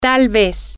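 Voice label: maybe, Spanish, female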